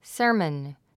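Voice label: "sermon", English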